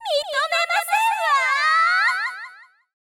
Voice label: witch death